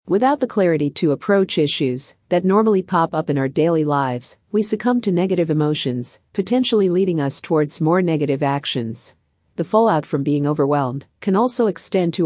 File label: Female Audio; Overwhelm